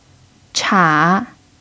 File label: "cha3", pinyin